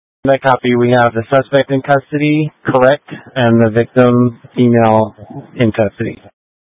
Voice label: Mutual Aid-Intersystem Interop B, scanner

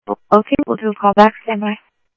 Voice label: Phoenix Fire, scanner; H-Scottsdale; PHXFD K7

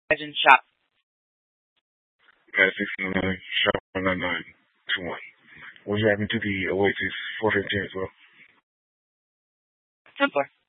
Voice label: Scottsdale Police, Simulcast

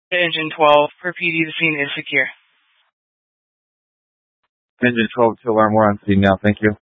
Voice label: Fire Dispatch; K8 - FDCOM 8 - South; B- Phx Fire and Public Works

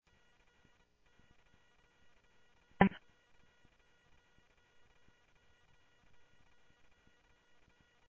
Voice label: Central Sheriff Central, scanner audio; MCSO Central; ThompsonPeakScot

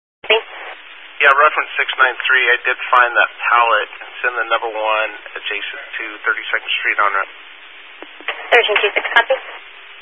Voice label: Highway Patrol, Phoenix Metro East Area, scanner

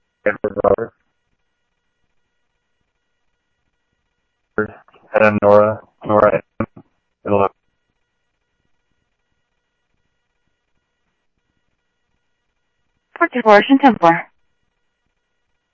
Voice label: Info Sheriff Common, scanner audio; MCSO - Info Sheriff - Common; ThompsonPeakScot

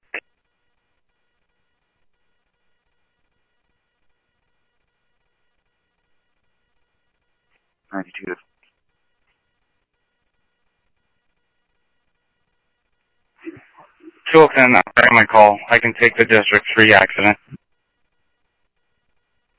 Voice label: Police, scanner audio

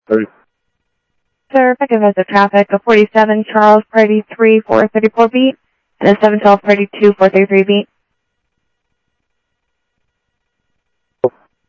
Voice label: South Scottsdale Sheriff, scanner audio

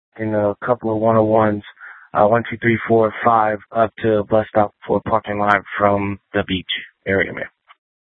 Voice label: Sheriff, scanner

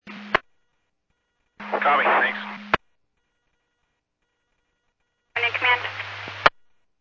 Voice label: Medevac, scanner; AirEvac PhxT

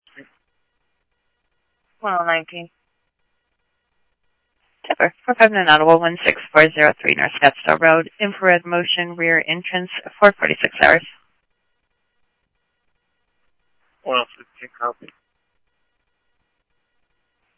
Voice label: Scottsdale Police, scanner

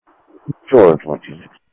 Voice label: Sheriff, scanner audio

